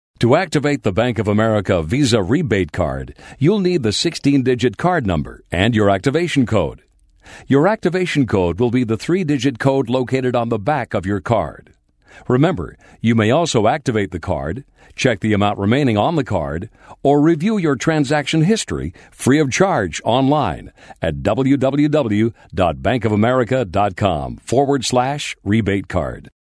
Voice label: Voice Prompt